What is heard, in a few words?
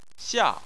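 "xia", Chinese, fourth tone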